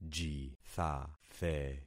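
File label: "jithathe", concatenated syllables; Affixing experiment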